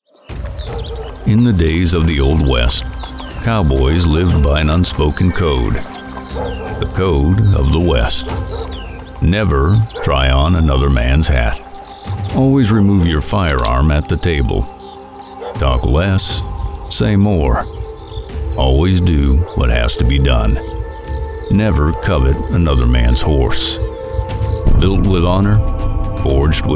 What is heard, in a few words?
Sam Elliott Style